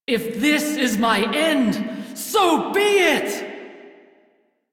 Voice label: Crew Near Death, Female; Dialog; en; Warframe; Railjack Crew; Steel Meridian